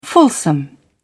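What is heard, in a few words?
"fulsome", English, British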